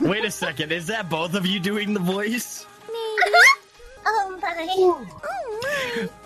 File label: animu voices